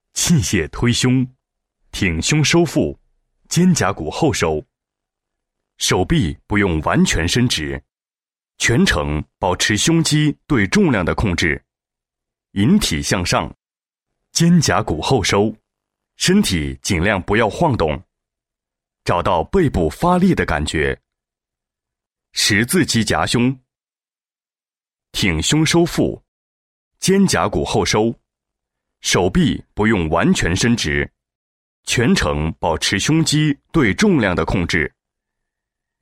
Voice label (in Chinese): 男 课件, 浑厚男声; 健身